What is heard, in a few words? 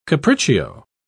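"capriccio", English, British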